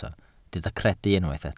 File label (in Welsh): "credu" Welsh